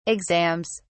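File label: "exams", British English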